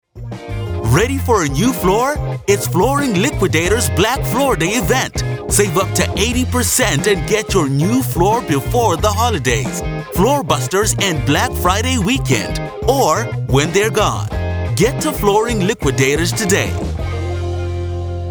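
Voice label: Conversational Male Voice Over, Deep Male Voice Over, African Male Voice Over; Flooring Liquidators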